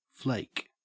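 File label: "flake", Australian English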